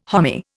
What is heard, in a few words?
"homy", Indian